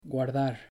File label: "guardar", Spanish